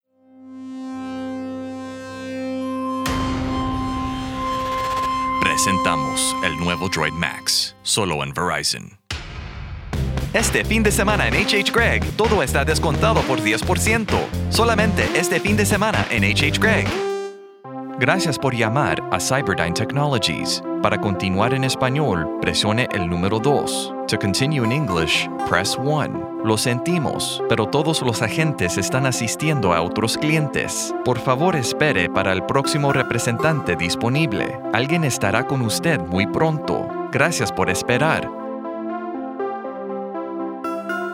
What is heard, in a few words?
Spanish